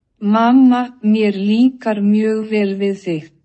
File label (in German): Islaendisch; Mama, i han di gera